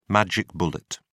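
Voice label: "magic bullet", British English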